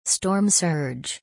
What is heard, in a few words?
"Storm Surge", English; thunder-and-lightning-in-english